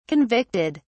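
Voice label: "convicted", British English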